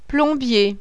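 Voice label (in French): "plombier", French; CHANTIER; travaux publics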